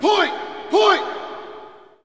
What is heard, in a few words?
Hockey Male Point; Hockey Vocal Sounds